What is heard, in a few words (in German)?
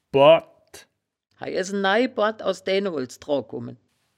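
"boord", wittlicher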